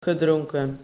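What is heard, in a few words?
"gedronken", Dutch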